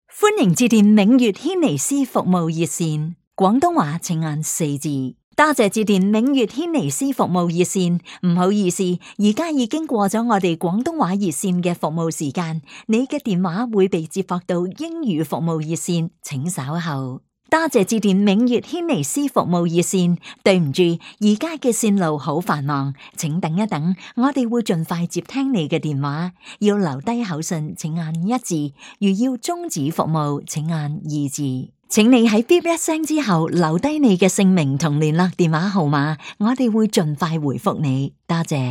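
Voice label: chinese cantonese female narration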